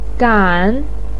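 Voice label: "gan3", Mandarin; 赶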